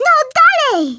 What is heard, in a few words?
HURRY, Speech, Polish; Worms Armageddon